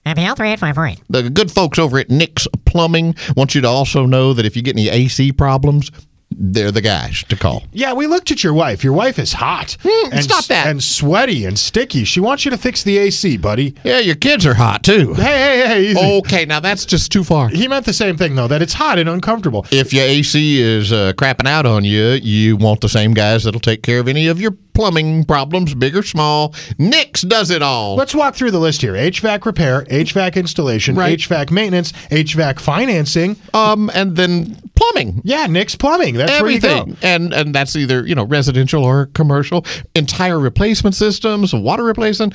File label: RADIO AD; nicks plumbing radio ads